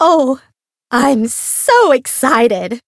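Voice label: Voice encounter fear; pom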